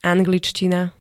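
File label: "angličtina", Slovak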